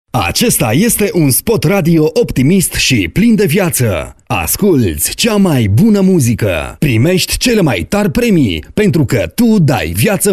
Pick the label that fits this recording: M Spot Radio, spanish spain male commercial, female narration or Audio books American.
M Spot Radio